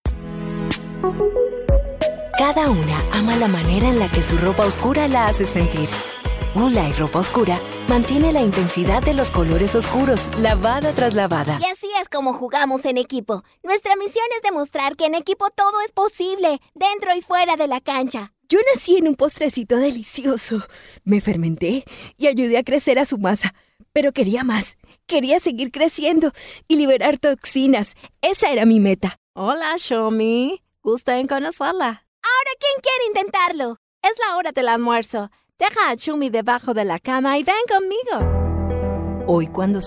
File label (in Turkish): Ispanyolca Kadin latin amerika ispanyolcasi kolombiyali; seslendirme